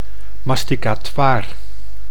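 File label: "masticatoir", Dutch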